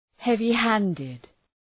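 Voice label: "heavy handed", English; {,hevı’hændıd}; Επίθετο; αδέξιος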